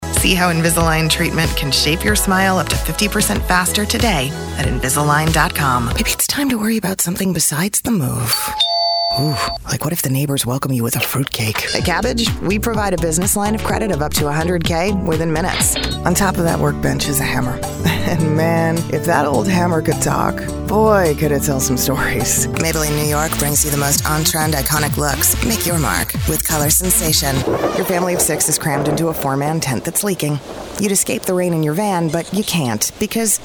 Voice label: commercial

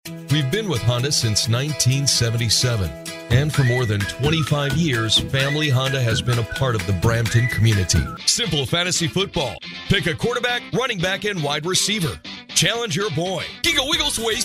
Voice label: voice over showcase

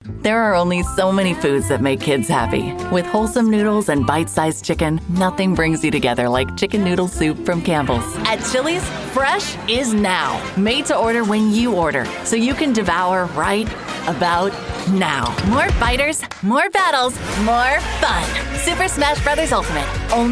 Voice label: Commercial

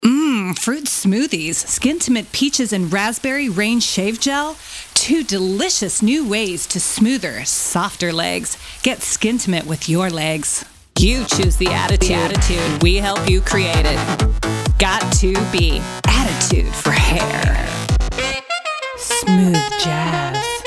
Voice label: voice over

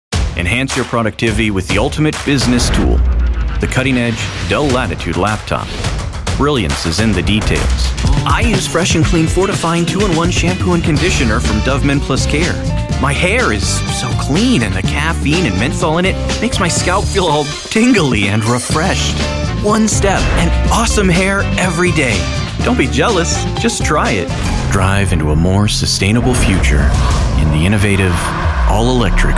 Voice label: Commercials

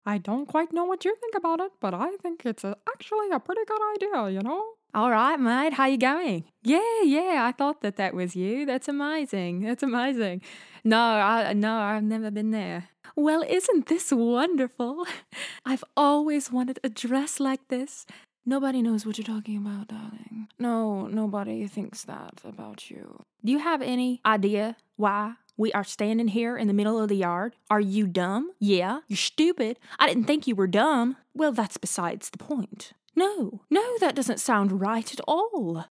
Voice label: character

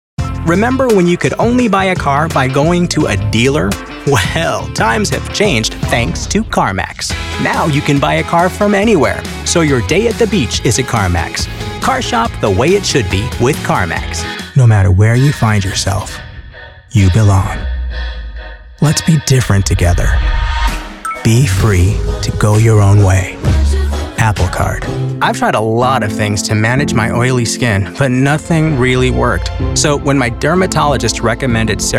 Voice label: Commercials

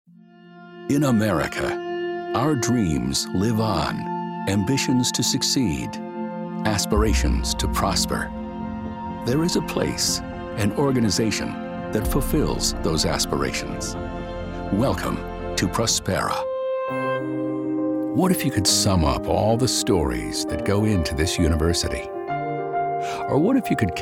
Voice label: Corporate

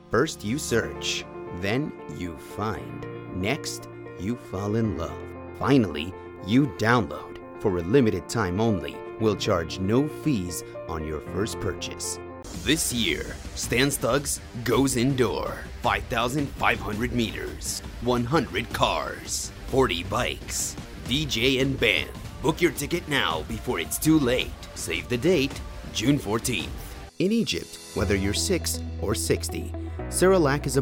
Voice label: Promo

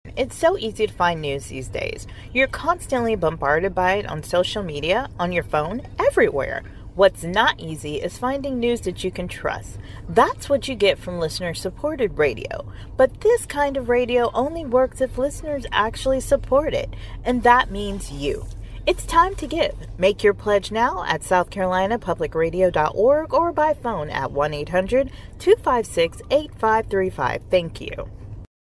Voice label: voice over audition

